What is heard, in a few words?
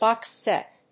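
"Box set", English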